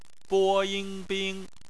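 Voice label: "bing", bopomofo, chinese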